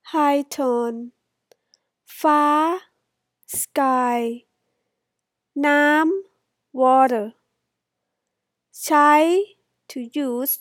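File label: high tone, Thai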